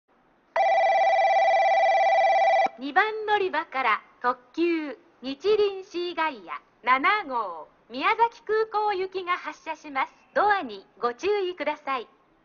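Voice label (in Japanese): 2番のりば発車放送, 中津駅; にちりんシーガイア7号 宮崎空港行き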